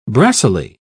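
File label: "brassily", British English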